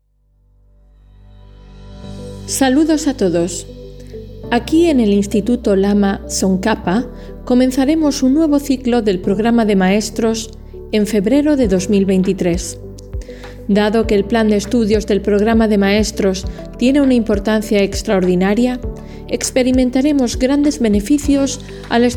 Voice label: Corporate